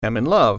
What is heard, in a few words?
i am in love female